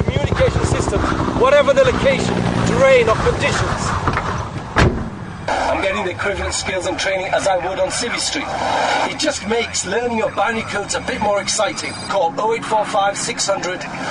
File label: AD DEU - ARMY RECRUITMENT (INFORMATIVE CONVERSATIONAL SLIGHT ACCENT)